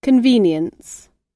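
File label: "convenience", English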